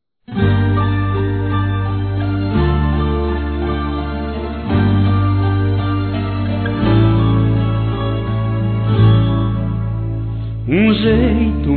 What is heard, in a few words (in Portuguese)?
FELIZ ANIVERSÁRIO F; Aniversários; Aniversários diversos; fem